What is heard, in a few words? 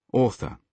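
"author", British English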